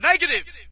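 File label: British negative; player; source engine; dod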